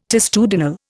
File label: "testudinal", Indian